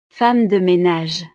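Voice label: "femme de menage", French; profesiones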